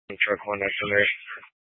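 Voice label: Fire Dispatch, Scanner audio recording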